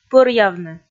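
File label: "пӧръявны", Komi, female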